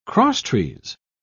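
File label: "crosstrees", British English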